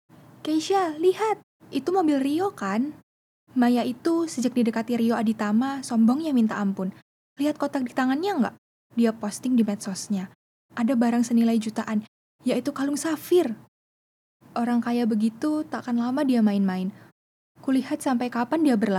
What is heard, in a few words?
CHARACTER BAHASA INDONESIA